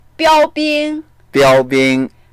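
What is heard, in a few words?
"biao1bing1", Mandarin Chinese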